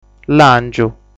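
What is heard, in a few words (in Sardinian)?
"Làngiu", Sardinian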